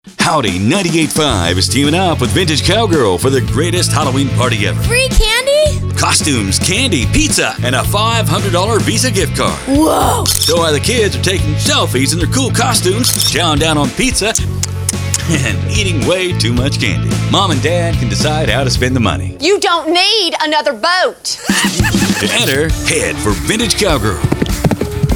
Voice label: Promo